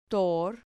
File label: "tor", Spanish syllable